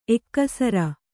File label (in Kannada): "ಎಕ್ಕಸರ", Kannada